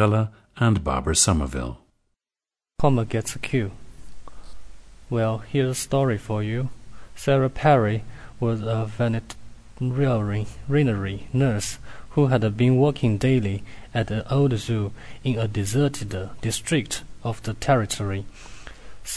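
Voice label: jiangsu, dialects of English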